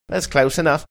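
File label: saying that's close enough cockney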